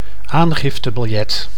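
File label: "aangiftebiljet", Dutch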